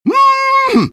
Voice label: taunt cong fun; engy; tf; taunts

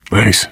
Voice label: thanks, player survivor voice biker; source engine; left 4 dead 2

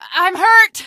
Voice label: i'm hurt, female; Source Engine; video game; npc

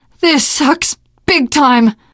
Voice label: reaction negative, teen girl; survivor voice

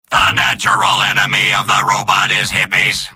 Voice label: robot

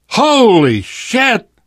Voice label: violence awe, survivor voice

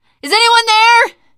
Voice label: lost call, teengirl; survivor voice

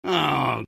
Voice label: negative vocalization; soldier; source engine; tf

